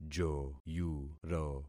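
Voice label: "joyuro", concatenated syllables; Affixing experiment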